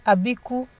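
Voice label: "abiku", Nigerian Pidgin, female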